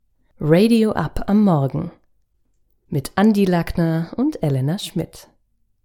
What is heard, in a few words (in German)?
Station Voice